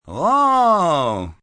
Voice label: "Oooh", male, reaction, positive; Exclamation Sound Effects